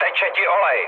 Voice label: damaged oil, speech cze, mood low; War Thunder